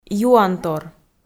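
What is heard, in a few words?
"юантор", Komi, female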